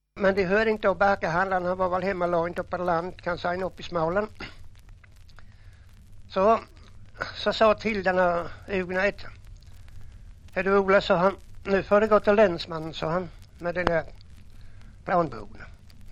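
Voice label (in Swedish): Jämshög Blekinge, Svenska sagor, dialekter; Folkminnen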